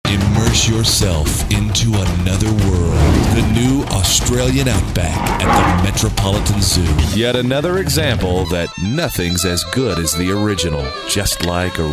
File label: Commercial